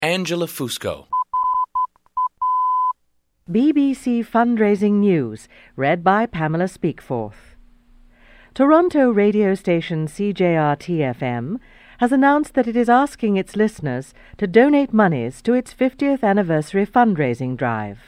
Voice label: animation female